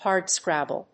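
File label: "hardscrabble", English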